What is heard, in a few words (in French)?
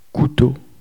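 "couteau" French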